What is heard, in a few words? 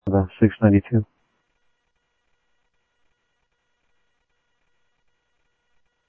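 Sheriff Lake Patrol, scanner audio trunk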